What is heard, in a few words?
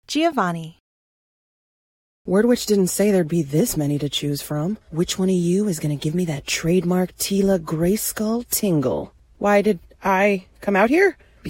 animation; Innovative LA